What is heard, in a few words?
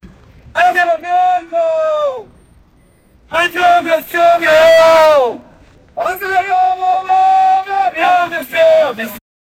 very angry salesman shout; retail